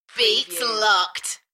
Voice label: UK female Beats locked Enthusiastic; beanstalk audio

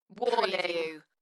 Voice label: UK female, Warning, Dalekness; Tech / Sci-fi; beanstalk audio

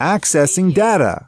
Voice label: US male accessing-data; lynne publishing

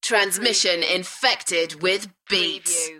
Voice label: UK female, Transmission infected with beats; beanstalk audio

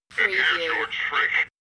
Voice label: Evil Toy Voice

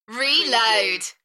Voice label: UK female "Reload" Enthusiastic; beanstalk audio

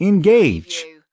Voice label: "engage", US male; lynne publishing

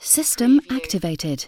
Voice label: UK female system activated; lynne publishing

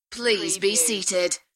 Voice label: UK female, Please be seated; beanstalk audio

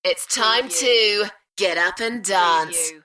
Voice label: UK female; Its time to get up and dance

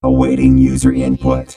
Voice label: Robot voice, "awaiting user input"; lynne publishing

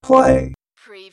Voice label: Robot voice, "play"; lynne publishing

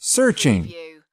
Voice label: "searching", US male

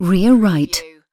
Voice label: UK female rear right; surround; lynne publishing

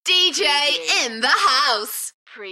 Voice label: UK female Enthusiastic; Dj in the house; beanstalk audio

